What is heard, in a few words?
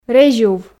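"резюв", Komi, female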